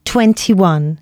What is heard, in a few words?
announcements, female